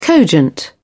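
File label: "cogent", British English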